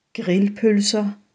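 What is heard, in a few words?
"grillpølser", Danish; Danish food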